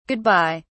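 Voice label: "good bye", British English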